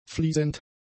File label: "fliesend", German; adjectives